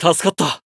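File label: healed, Japanese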